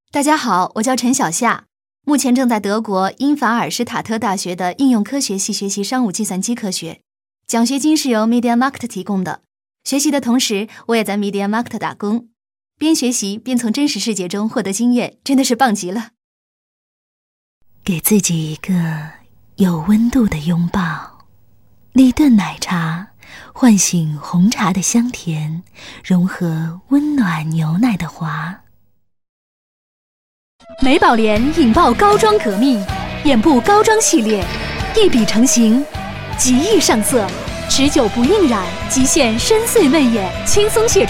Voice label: voices CHINESE female adult MANDARIN; KER-SOUND